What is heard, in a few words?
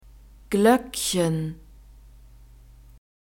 "gloeckchen", German